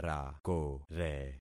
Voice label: "rakore", concat syllables; Affixing experiment